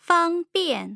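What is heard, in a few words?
"fangbian", Mandarin Chinese, tone pairs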